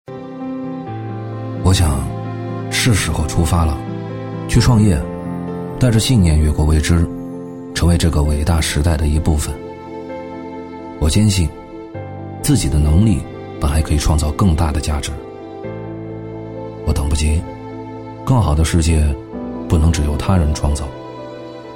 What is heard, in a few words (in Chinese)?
男 品牌广告 TVC 深情走心; 质感磁性